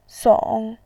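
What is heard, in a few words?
"song", Mandarin Chinese, third tone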